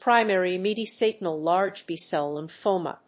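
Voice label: "primary mediastinal large B-cell lymphoma", English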